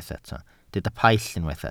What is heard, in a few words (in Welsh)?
"paill", Welsh